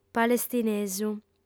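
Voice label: "palestinesu" Sardinian, female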